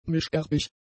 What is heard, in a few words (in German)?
"mischerbig", German; adjectives